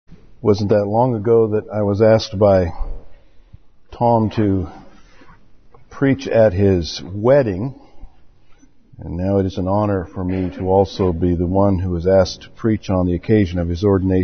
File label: preaches at Church